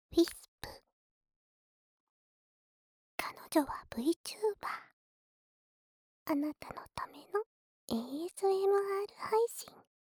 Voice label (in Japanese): タイトルコール